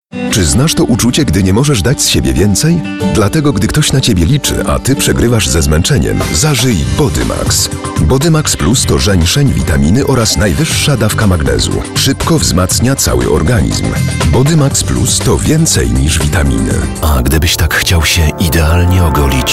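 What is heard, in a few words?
voice male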